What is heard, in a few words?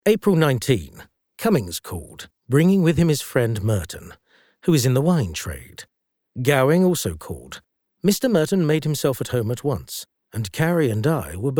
Audiobook